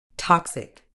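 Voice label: "toxic", British English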